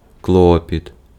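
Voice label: Ukrainian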